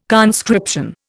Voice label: "conscription", Indian